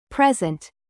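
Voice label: "present", noun, British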